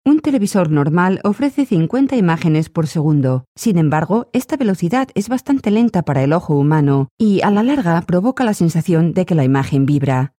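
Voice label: Spanish, female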